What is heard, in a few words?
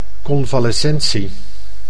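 "convalescentie", Dutch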